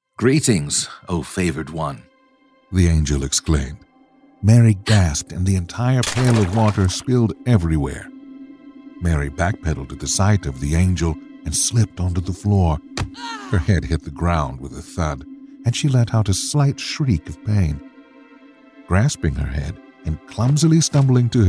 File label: Narrator; Audiobook 2